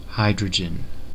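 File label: "hydrogen", US English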